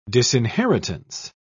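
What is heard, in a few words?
"disinheritance", English, British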